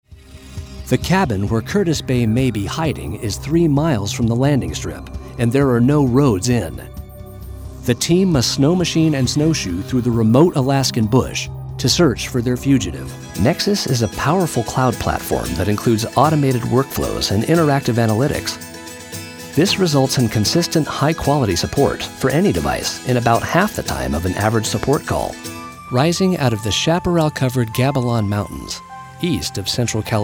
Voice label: Narration